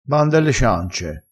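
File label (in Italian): "bando alle ciance", dialettale crespinese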